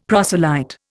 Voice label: "proselyte", Indian; Dictionary

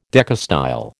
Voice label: "decastyle", American

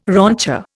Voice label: "rancher", Indian

